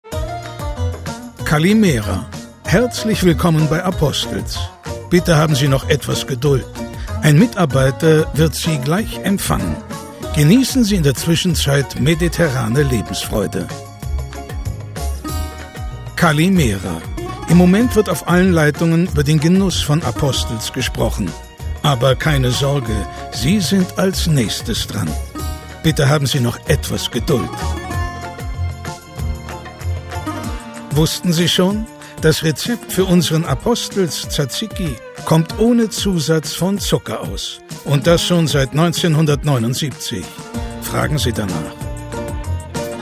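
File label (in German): Kommentar Freundlich; Apostels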